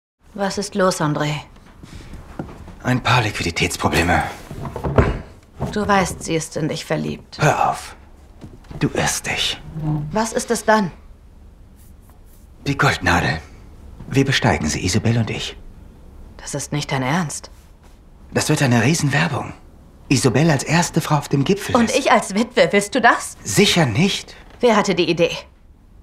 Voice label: Synchron; Winter Palace Goldnadel

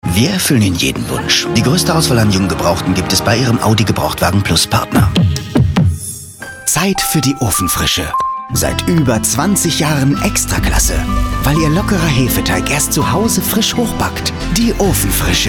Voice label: sprachprobe werbung off mix

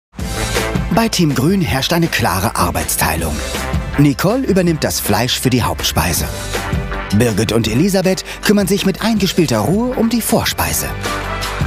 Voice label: Doku Off; vfw Arbeitsteilung

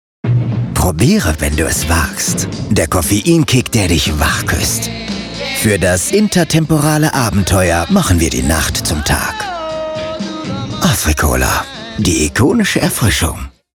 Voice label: Werbung Cola

